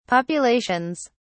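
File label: "populations", British English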